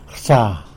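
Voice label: "drives", Tlacolula Zapotec; rsa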